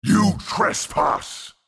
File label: Mid Fight Taunts; Voll; NPC; Voll Combat